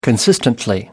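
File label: "consistently", English, British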